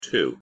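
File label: "two", English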